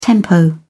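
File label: "tempo", British English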